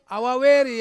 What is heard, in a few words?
"awaweri", Ansus; money